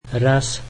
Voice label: slovak once